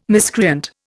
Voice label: "miscreant", Indian

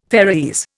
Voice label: "Faeroese", Indian

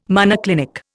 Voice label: "monoclinic", Indian

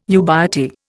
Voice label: "ubiety", Indian

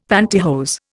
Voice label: "pantihose", Indian; Dictionary

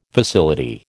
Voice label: "facility", American

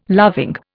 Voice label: "loving", Indian

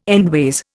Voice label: "endways", Indian

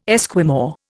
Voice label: "Esquimau", Indian

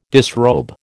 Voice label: "disrobe", American

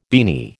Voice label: "beanie", American

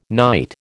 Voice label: "night", American

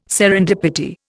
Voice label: "serendipity", Indian

